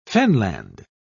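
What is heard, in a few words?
"fenland", English, British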